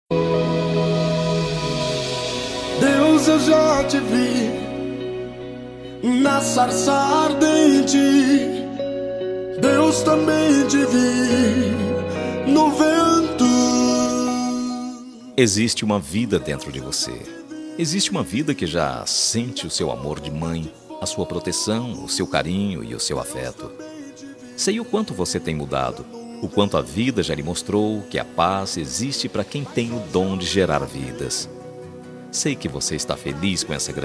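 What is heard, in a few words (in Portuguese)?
DIA DAS MAES P GRAVIDA male, telemensagem; DIA DAS MAES P GRAVIDA MASC; telemensagem dia das maes diversos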